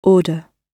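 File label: "order" British English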